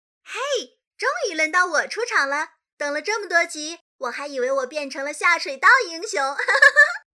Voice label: 角色音短; 王者荣耀

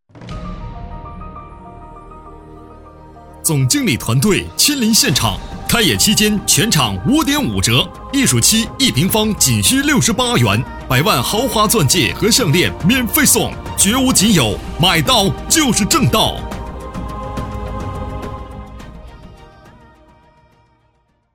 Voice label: 促销

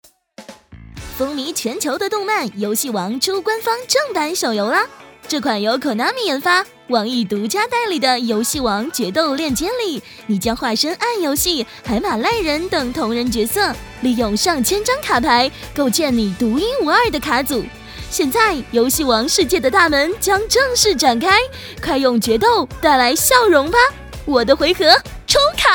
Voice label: 台湾腔古早广告风游戏宣传

